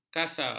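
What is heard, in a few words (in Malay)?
"kasa", Malay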